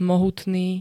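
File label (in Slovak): "mohutný", Slovak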